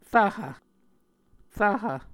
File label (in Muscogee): "rvhv", Muscogee